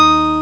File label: m voice; Amiga Soundtracker Sample Packs